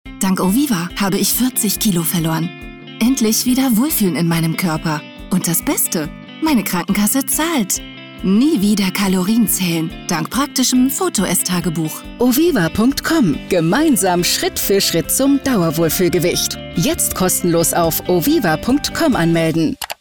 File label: german commercial; Allemand; Allemagne